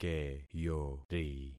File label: "keyori", concat syllables; Affixing experiment